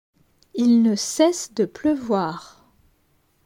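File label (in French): "Il ne cesse de pleuvoir", French; grammaire; la negation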